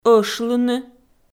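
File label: "ӧшлыны", Komi, female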